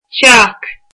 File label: "house", Irish